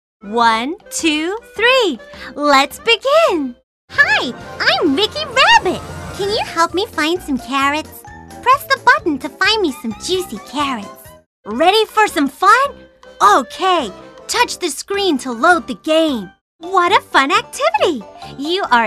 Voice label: USA Toys